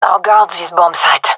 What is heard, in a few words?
guarding bombsite, fem; vo